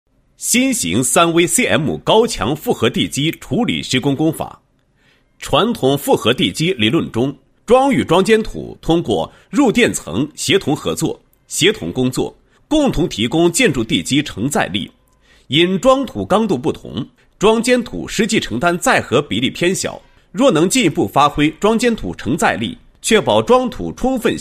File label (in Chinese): 男 工程解说; 高强复合地基施工工法解说